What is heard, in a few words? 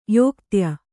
"ಯೋಕ್ತ್ಯ", Kannada; yōktya; noun; a charioteer.